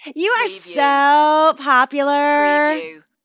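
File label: Woman Says You're So Popular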